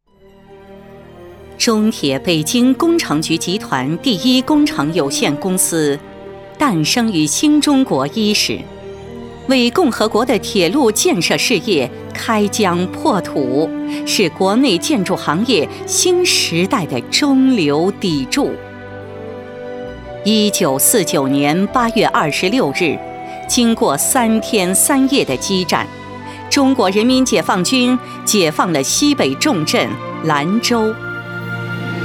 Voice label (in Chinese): 专题 企业 铁路企业 大气, 女国